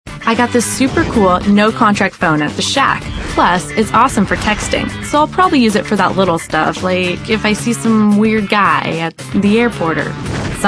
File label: female late20s late30s clear